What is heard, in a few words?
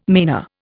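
"manor", Indian English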